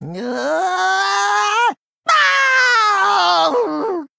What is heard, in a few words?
Male Goblin Death